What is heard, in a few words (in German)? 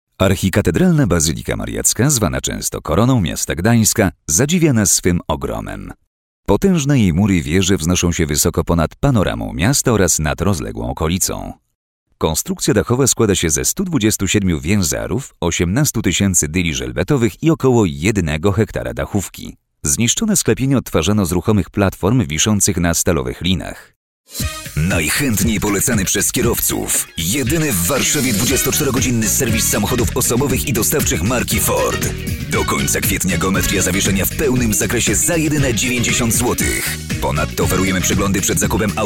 poland male filmvertonung sprecher